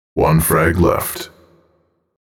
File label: "1 frag left", announcer